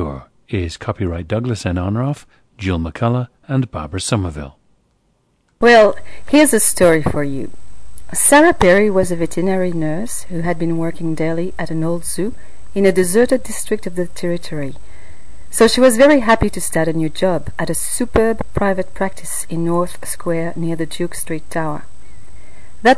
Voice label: quebec

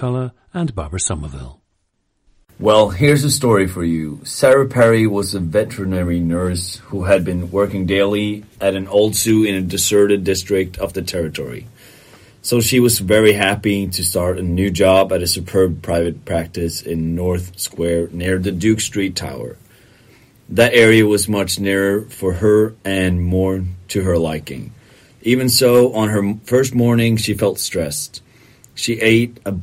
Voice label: norway, dialects

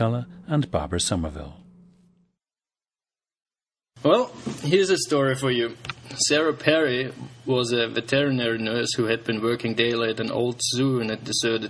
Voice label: austria, dialects

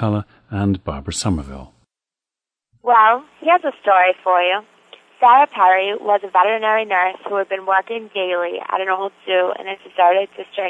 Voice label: massachusetts, dialects

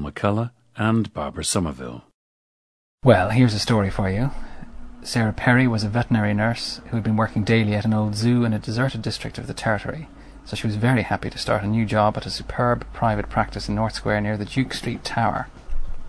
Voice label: ireland, dialects

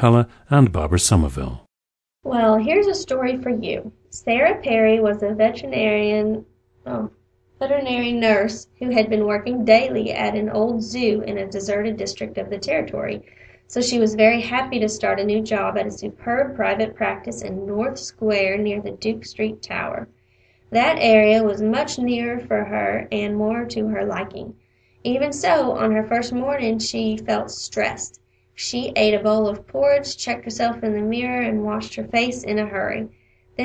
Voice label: missouri, dialects

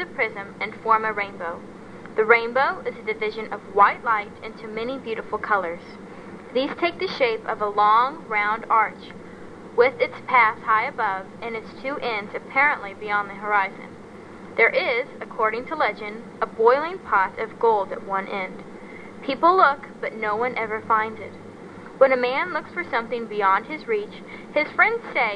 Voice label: missouri, dialects

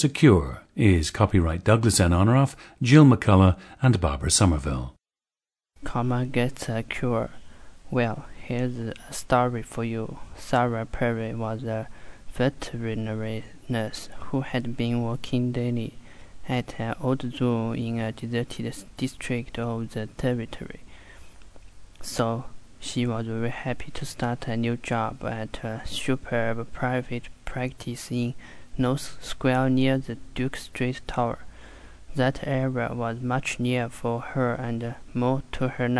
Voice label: hunan

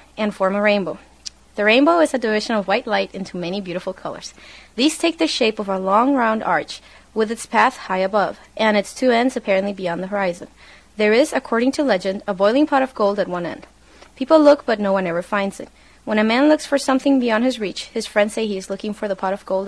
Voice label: guatemala, dialects

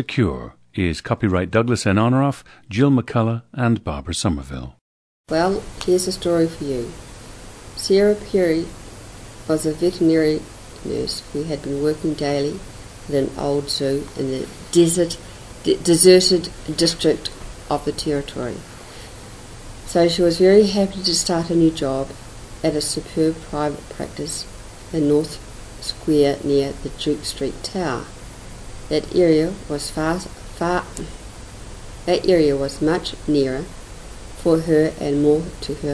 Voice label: New Zealand

